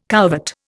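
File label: "couvert", Indian English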